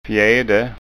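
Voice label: "fjede", Scanian dialect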